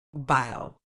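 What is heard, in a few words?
"bile", British English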